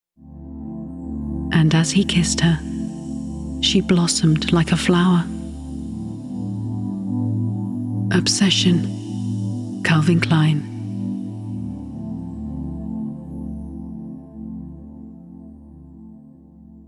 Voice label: ad; CK